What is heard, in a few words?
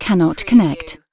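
"cannot connect", British female; lynne publishing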